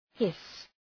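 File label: "hiss", English; {hıs}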